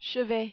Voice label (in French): "chevet", French